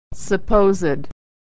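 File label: "supposed", American English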